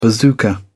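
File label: "bazooka", English, British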